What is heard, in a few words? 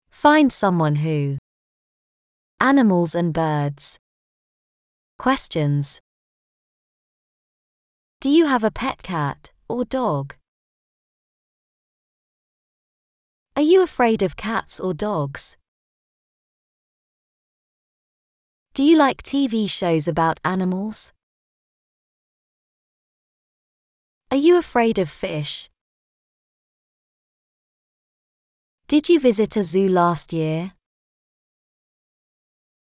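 British; find someone who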